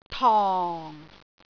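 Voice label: "tong4", Cantonese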